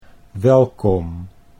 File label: "Welkom", Dutch